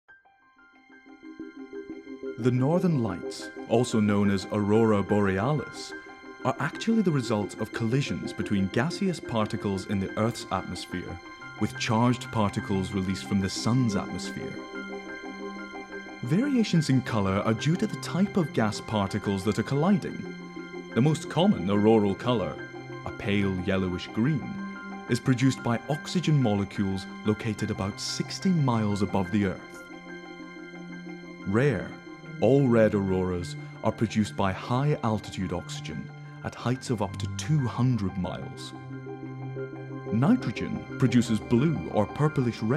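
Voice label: narration; Northern Lights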